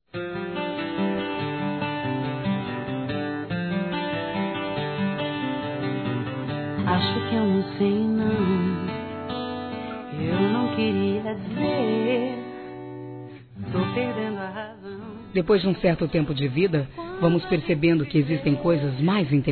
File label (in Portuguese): Romantica Romantica Para Casal Feminino; Telemensagens; Românticas